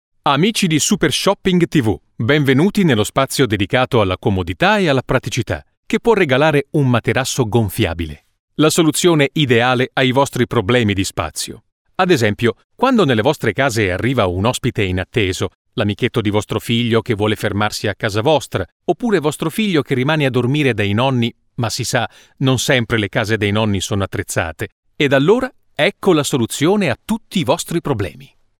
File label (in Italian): Televendite